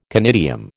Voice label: "conidium", American